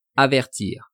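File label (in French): "avertir", French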